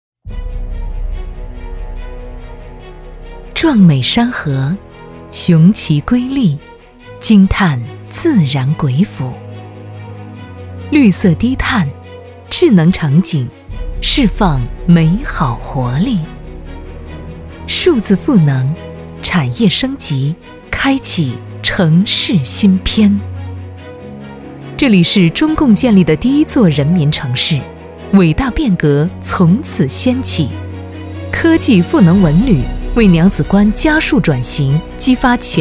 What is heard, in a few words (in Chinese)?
女 宣传; 女4-宣传-活力阳泉